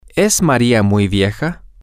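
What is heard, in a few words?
Spanish, male; is Maria very old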